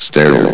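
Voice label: "sterile", male, vox; valve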